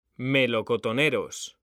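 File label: "melocotoneros", Spanish; mekanta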